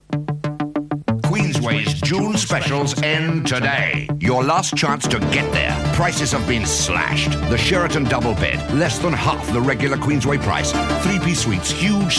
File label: Radio Hallam Advert - June Specials; Queensway